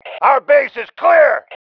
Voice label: base clear, MALE